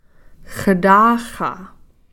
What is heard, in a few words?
"xtaaxa", paternal uncle